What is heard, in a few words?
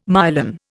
"myelin", Indian